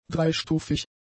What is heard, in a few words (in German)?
"dreistufig", German; adjectives